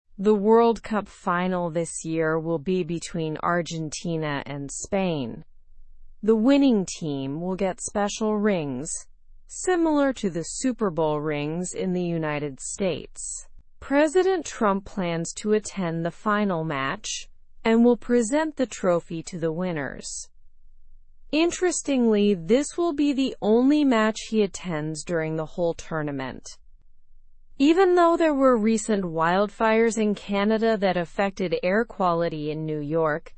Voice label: english through news lower intermediate slow; world cup rings and trump s attendance

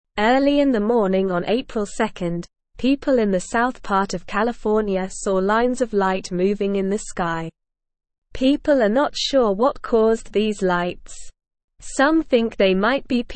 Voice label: English Lower Intermediate SLOW Reading; Mysterious Lights in California Sky What Happened

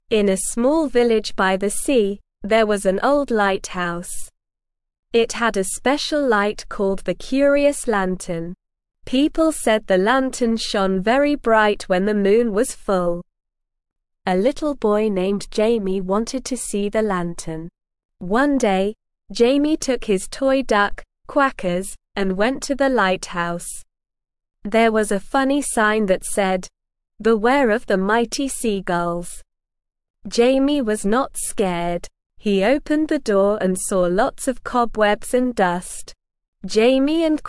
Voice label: ESL Short Stories for Kids Beginner SLOW Reading; The Curious Lantern of the Lost Lighthouse

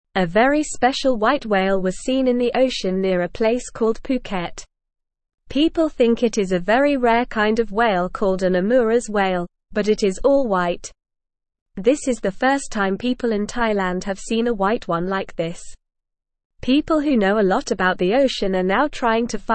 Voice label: English Newsroom Beginner NORMAL Reading

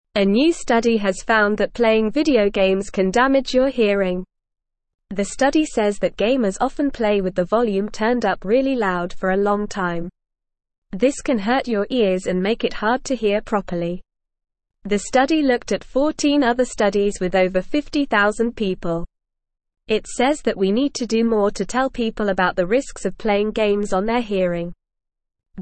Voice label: English Upper Intermediate NORMAL Reading; English Newsroom; Gamers at Risk of Hearing Loss and Tinnitus

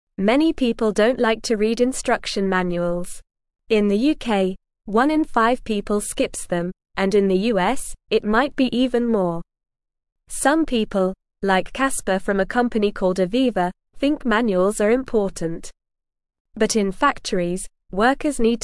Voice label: English Beginner NORMAL Reading; Smart Computers Help People Understand Machines Better

